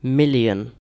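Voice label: "million", British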